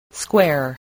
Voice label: "square", voice